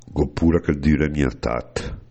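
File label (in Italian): Gh’ó pura che l’düre mia tat, bergamasco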